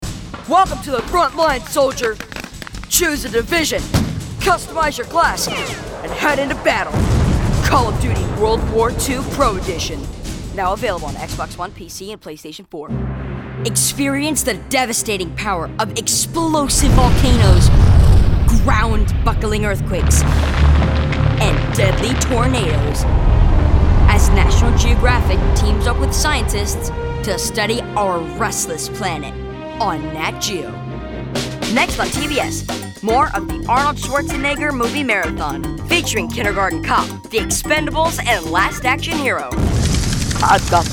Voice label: Promo VO Samples

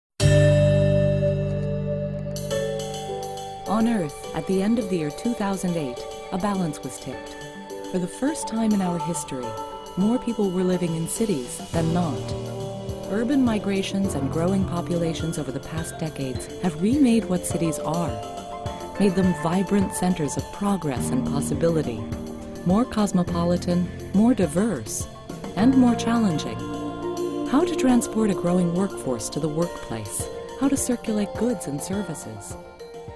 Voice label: Documentary

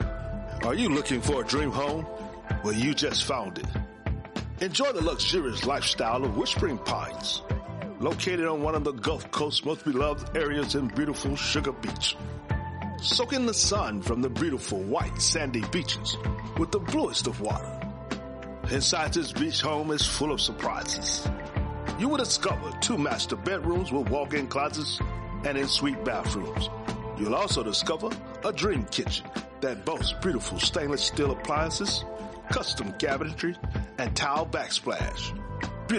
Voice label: Virtual Tour

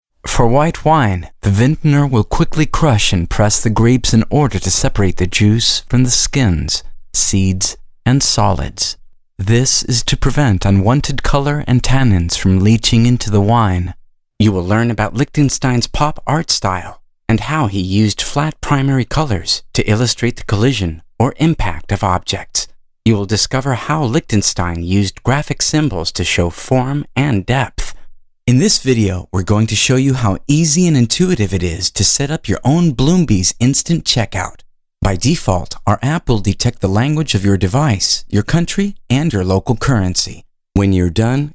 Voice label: E-LEARNING

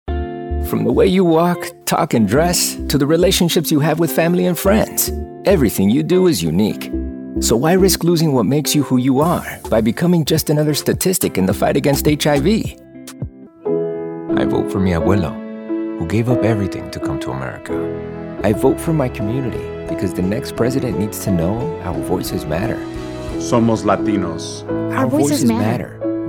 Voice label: Accented English

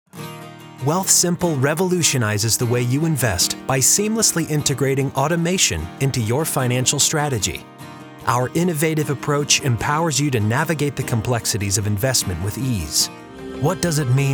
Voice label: Regional Commercial

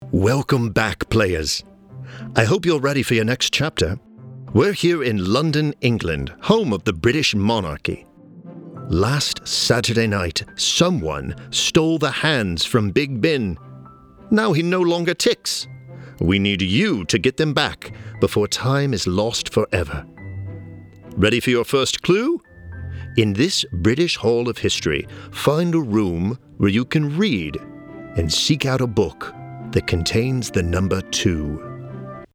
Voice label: Education Game

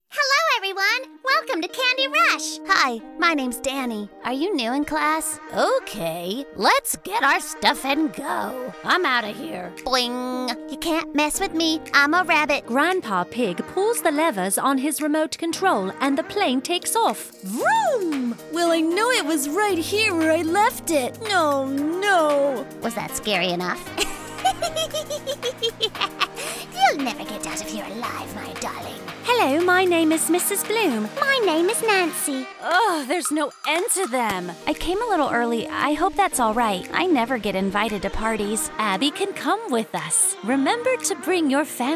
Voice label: Animation and Characters

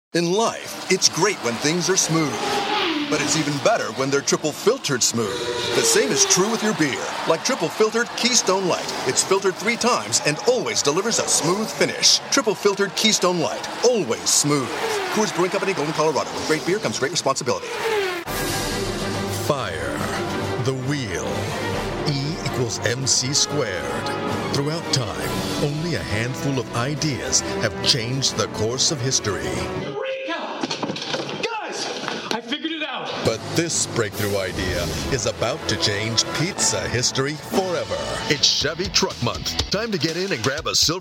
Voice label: COMMERCIALS

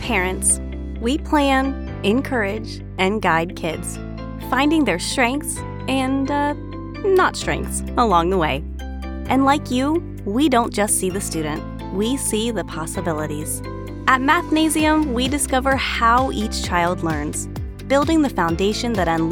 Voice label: Young Mom

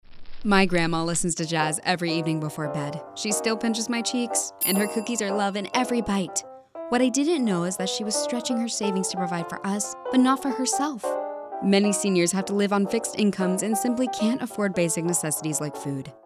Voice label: PSA

